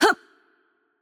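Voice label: Japanese attack light voice; bloom minigame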